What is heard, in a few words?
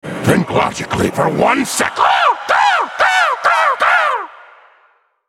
joke, en US; Swain; Raven